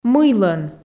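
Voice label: "мыйлӧн", Komi, female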